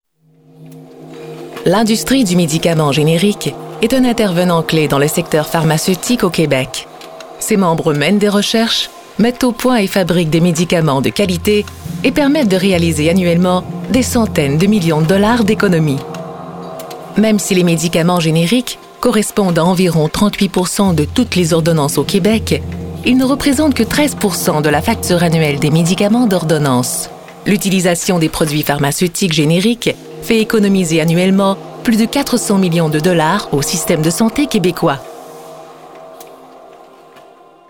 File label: french canadian documentaries